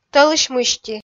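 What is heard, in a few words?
"тӧлысь мысти", Komi, female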